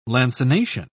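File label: "lancination", British English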